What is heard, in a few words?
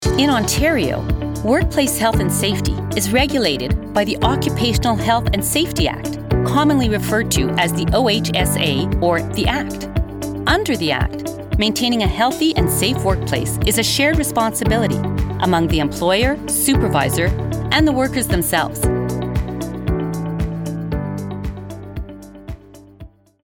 ELearning; ON-workplace